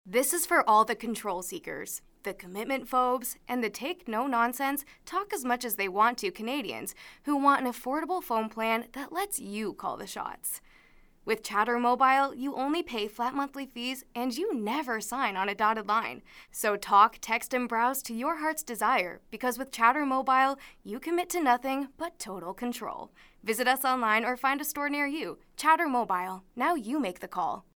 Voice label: Canadian accent Commercial